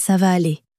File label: "ca va aller", French